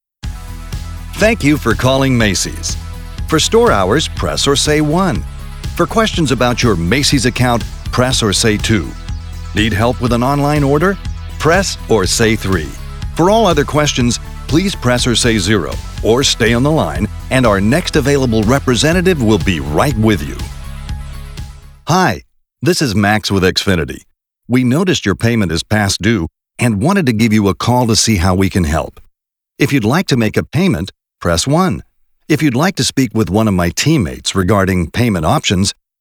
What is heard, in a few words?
Telephony